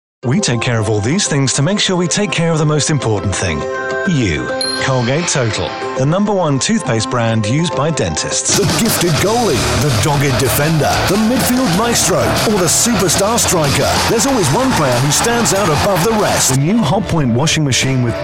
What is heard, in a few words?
Commercial Mix